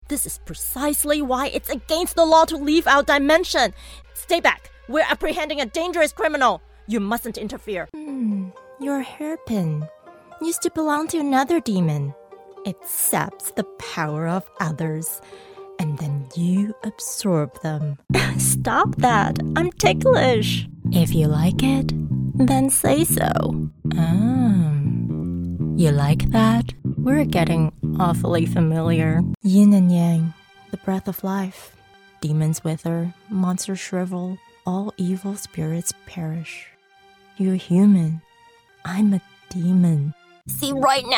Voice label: Animation

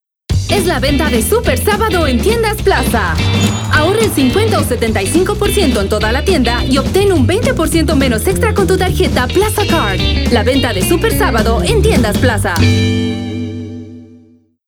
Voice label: es LA COMMERCIAL

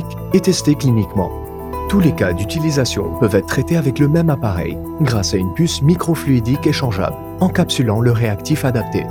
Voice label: Urine Scientific Explainer

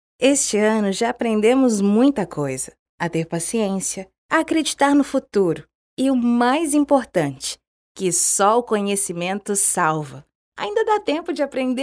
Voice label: natural

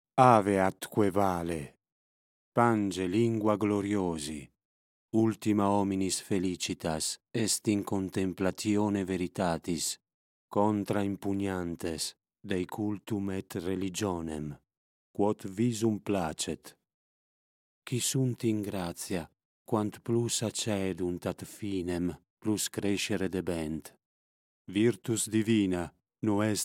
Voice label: LATIN ITA VIDEOGAME; Wasteland 3

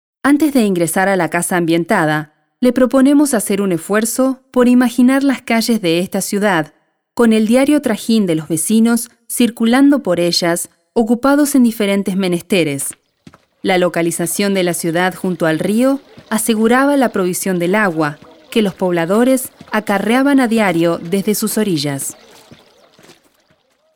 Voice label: es-AR AUDIOGUIDE

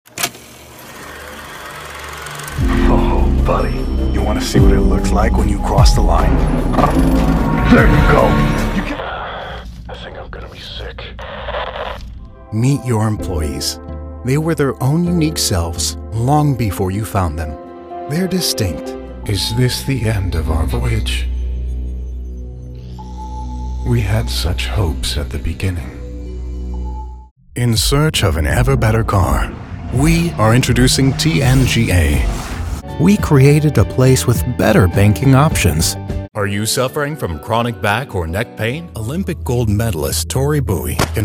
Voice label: Commercial and VG

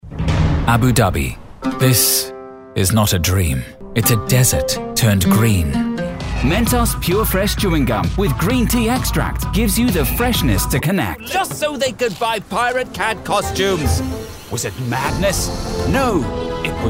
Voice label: VO British Commercial

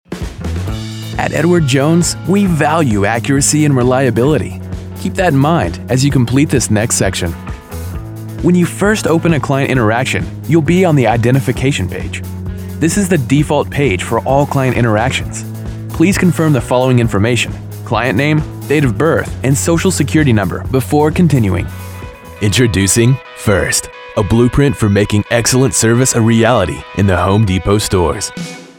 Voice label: Corporate Narration

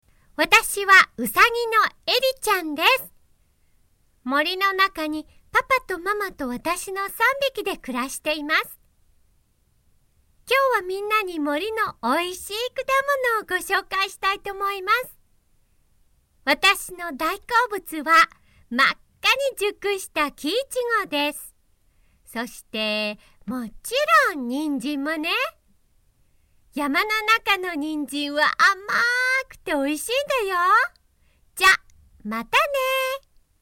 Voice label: Japanese Child Voice Sample

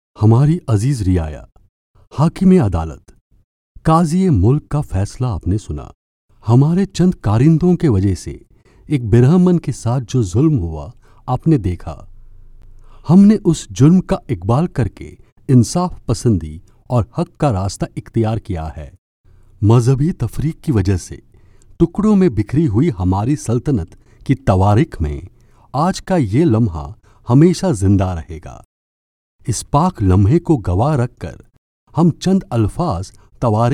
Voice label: URDU Voice Sample

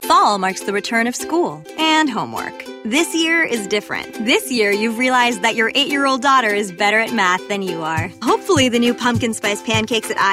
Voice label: Commercial

